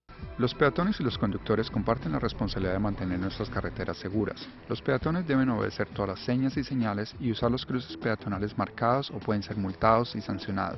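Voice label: Audio PSA Spanish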